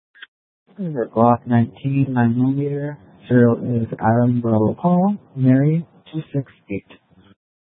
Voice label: Sheriffs Office, scanner

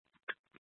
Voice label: Light Rail Emergency, scanner

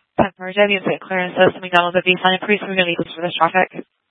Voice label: Tempe PD, scanner; A04 South

